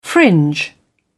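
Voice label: "fringe", British English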